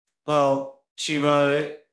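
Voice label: Voice Acting, Spanish, male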